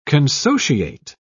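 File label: "consociate", English, British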